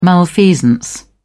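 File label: "malfeasance", English, uk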